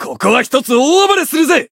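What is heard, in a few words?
Voice encounter, Japanese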